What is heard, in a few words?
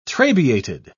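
"trabeated", British English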